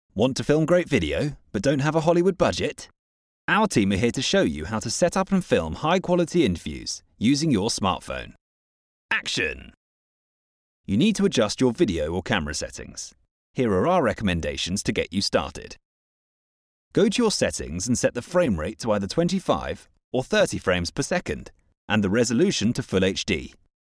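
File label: English, British, male; english british voice over talent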